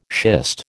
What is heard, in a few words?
"schist", American